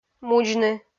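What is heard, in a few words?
"мудзны" Komi, female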